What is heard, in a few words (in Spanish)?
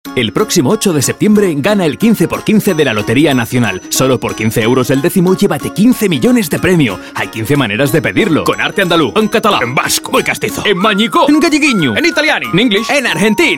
Cuña Loteria español